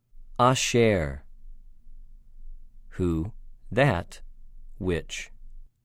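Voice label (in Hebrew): "אֲשֶׁר", Hebrew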